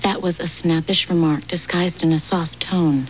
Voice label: Snappish in a soft tone; That was a snappish remark disguised in a soft tone.